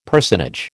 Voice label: "personage", American

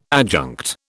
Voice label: "adjunct", British

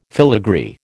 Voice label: "filagree", American

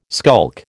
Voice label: "skulk", American

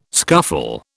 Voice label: "scuffle", British

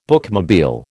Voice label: "bookmobile", American

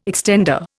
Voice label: "extender", Indian

